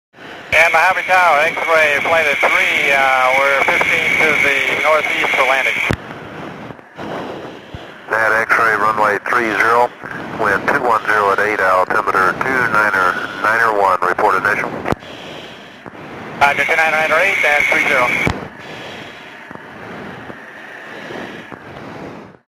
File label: Landing Exchange, radio